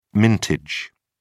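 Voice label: "mintage", British English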